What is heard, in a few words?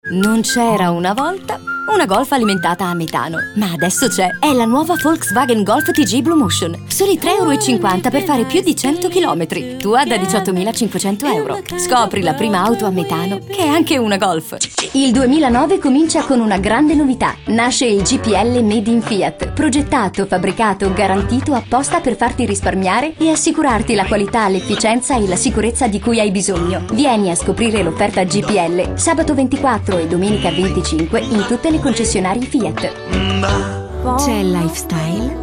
friendly nice tones